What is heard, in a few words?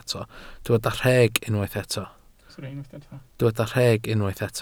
"rheg", Welsh